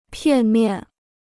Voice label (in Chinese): "片面", Chinese